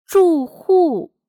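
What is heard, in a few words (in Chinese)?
"住户" Mandarin Chinese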